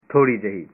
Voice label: Just a little, punjabi